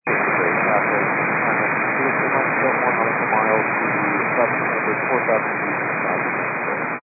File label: 122 925MHz, scanner radio